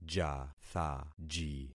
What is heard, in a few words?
"jathaji", concat syllables; Affixing experiment